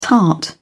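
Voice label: "tart", British English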